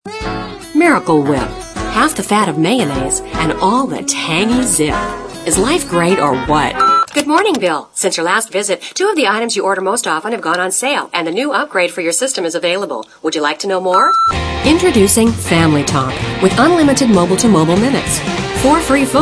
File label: female, late 30s early 40s, clean